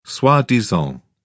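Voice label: "soi-disant", British English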